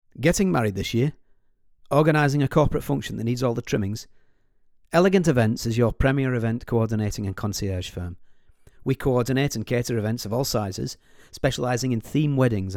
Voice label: Northern English